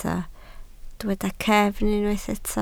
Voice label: "cefn", Welsh